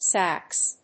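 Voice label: "SAXS", English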